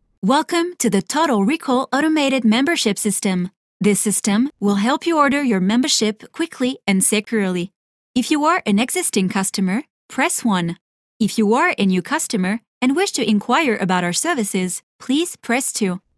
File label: English secondary